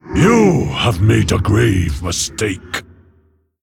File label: Male Mogu Attack